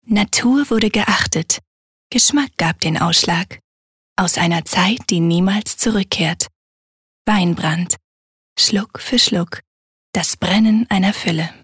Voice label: Werbung Sanft

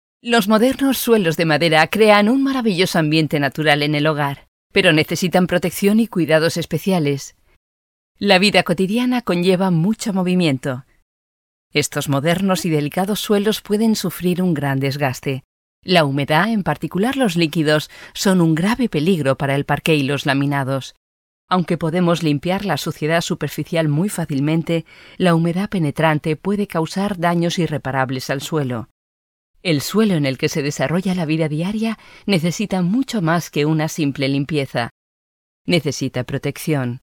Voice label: ESP Kommentar Freundlich

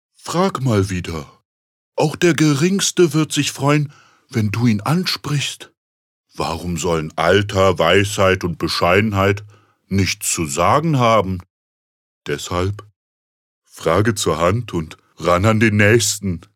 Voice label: Charge Tief

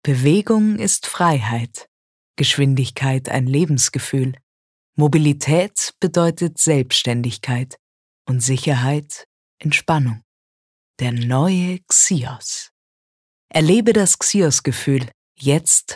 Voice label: Sanft; Xios

